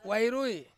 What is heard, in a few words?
"wai rui", Ansus; jarum